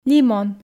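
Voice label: "лимон", Komi, female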